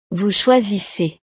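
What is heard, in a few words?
"vous choisissez", French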